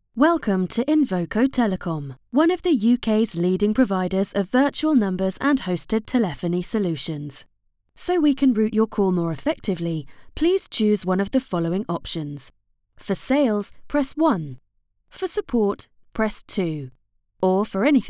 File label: IVR Greeting; Invoco